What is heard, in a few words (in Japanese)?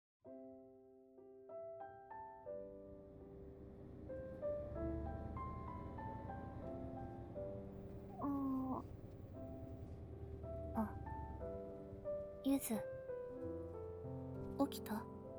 添い寝,ASMR; 百合でエッチな耳かきボイスβ; バスの添い寝